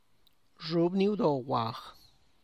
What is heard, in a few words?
"xhub ni udo wag", Tlacochahuaya Zapotec